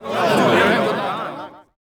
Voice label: elves murm

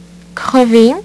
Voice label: "crever", French; HyperSound™2

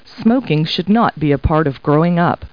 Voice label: people, kid

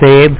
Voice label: apple, Hindi; WordMatch

